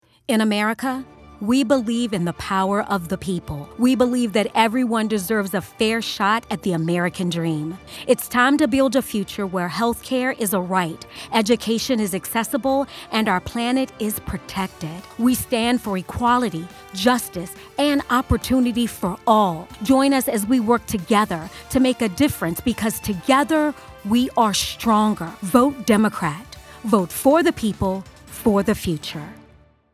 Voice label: politics, female voice over

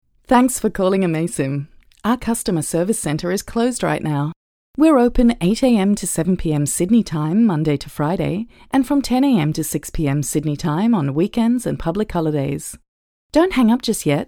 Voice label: On Hold IVR

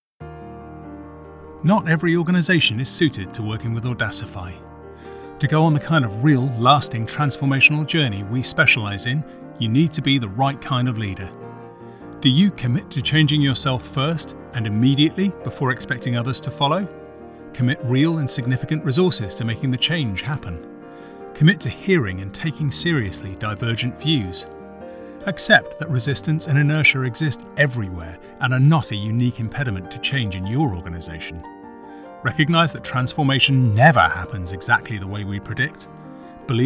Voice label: Consultancy advert

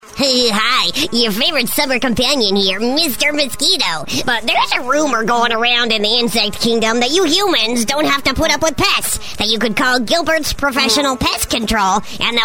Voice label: rock character

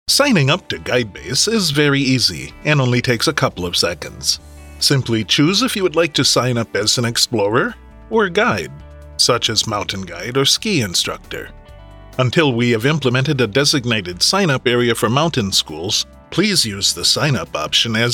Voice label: explainer

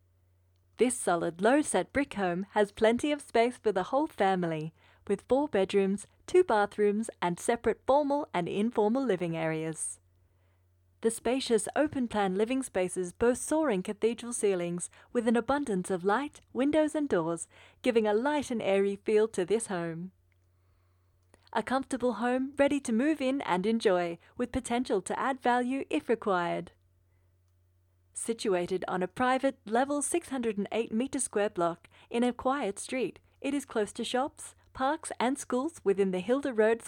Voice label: Real Estate voice Only, Voiceover girl AU

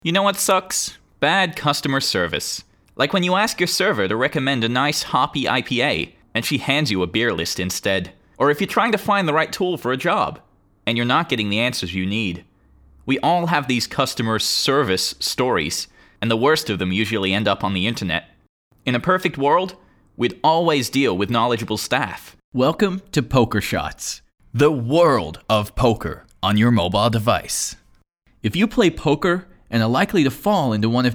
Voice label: American

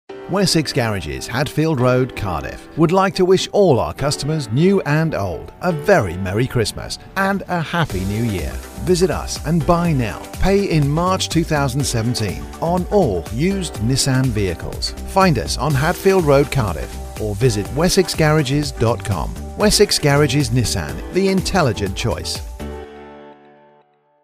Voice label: christmas radio advert; Wessex

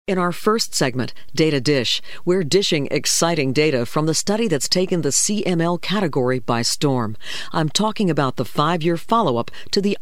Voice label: NARRATION

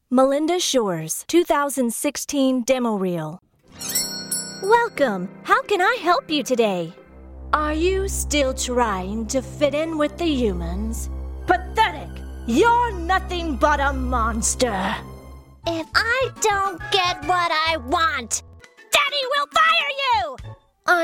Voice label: Character

